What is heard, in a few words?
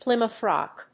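"Plymouth Rock", English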